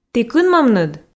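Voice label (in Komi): ті кынмӧмныд, Komi, female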